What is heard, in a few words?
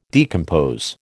"decompose", American